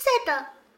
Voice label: sad, vocal; Techmino